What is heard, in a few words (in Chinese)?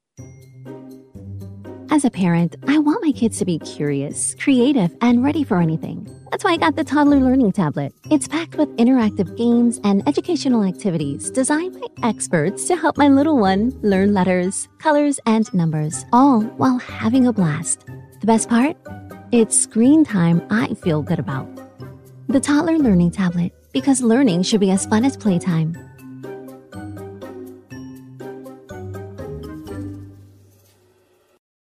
美式女声